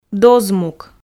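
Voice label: "дозмук", Komi, female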